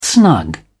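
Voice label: "snug", British English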